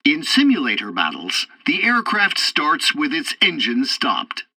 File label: tutorial takeoff preparation, english; War Thunder